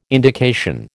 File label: "indication", American